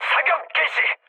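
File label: Korean attack position mood med, speech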